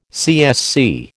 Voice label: "CSC", American